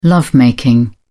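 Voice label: "love making", British English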